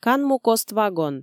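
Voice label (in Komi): "канмукост вагон", Komi, female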